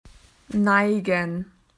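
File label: "slanted", Australian English; PictureDictionary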